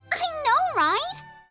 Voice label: excited; madoka; untildawn

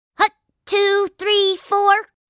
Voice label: voice english; teemo; league of legends

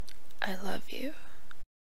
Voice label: female i love you

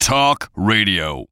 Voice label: talk radio voice

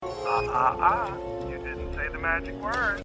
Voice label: ahahah; dennis nedry

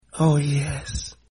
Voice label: "oh yes", male

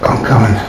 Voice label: im comin loud

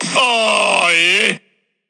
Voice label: awwww yeee

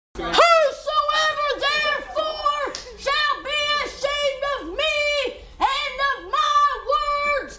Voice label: child preacher

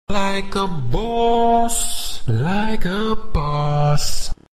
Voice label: autotune; chris smoove

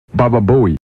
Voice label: bababooey sound effect

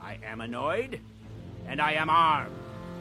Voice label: annoyed

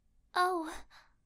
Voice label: oh cute anime girl voice

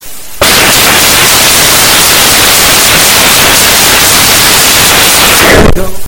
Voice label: very loud lol lol

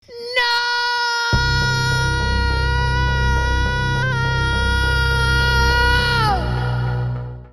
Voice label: teen noooo

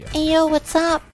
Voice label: female "hey whats up"

